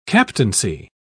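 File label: "captaincy", English, British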